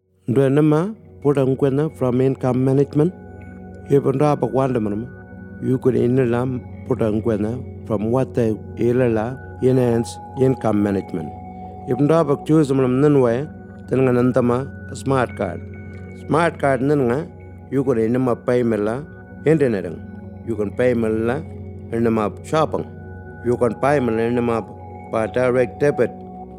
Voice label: eastern arrernte, Radio audio files are available in First Nations languages; enhanced income management